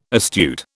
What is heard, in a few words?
"astute", British